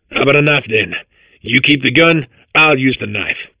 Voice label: babble; portal2